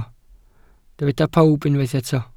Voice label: "pawb", Welsh